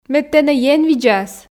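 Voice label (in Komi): мед тэнӧ ен видзас, Komi, female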